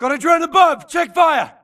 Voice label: grunt react drone spawn friendly; imc; Titanfall 2